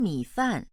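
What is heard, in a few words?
"mi3 fan4", Chinese, female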